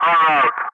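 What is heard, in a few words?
"enroute", English; Ka-50; Wingman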